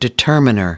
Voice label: determiner, us